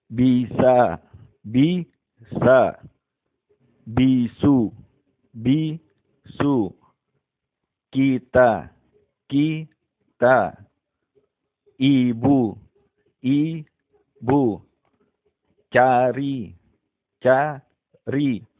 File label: How to pronounce vowel I In Indonesia